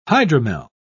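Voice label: "hydromel", British English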